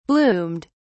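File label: "bloomed", British English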